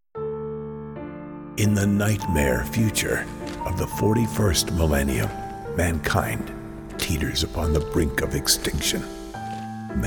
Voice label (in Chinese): 外籍英男 神话故事讲述 深沉磁性